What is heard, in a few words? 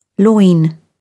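"loin", British English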